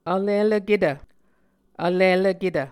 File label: "vlilvketv", Muscogee